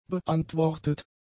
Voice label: "beantwortet", German; adjectives

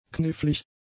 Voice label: "knifflig", German; adjectives

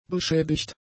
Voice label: "beschädigt", German; adjectives

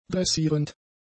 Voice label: "dressierend", German; adjectives

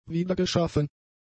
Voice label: "wiedergeschaffen", German; adjectives

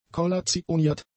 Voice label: "kollationiert", German; adjectives

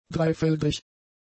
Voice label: "dreifeldrig", German; adjectives

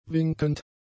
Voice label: "winkend", German; adjectives

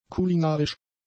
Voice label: "kulinarisch", German; adjectives

